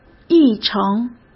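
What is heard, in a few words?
"议程", Mandarin Chinese; chinese-flashcards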